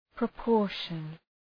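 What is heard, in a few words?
"proportion", English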